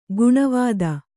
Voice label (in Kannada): "ಗಿಣಿವೋದು", Kannada